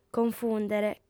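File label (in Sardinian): "confùndere", female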